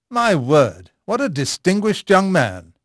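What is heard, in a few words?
English male; Pirates Of The Caribbean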